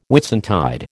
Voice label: "Whitsuntide", American